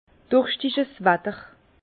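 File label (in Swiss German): "durschtigdwatter", Alsace Bas Rhin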